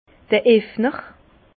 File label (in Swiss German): "effner", Bas Rhin; vin; décapsuleur